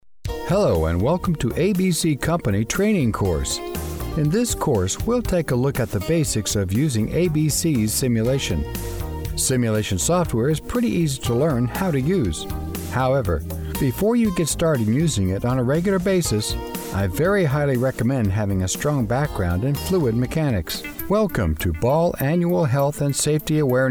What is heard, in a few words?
Narration, Medical, Male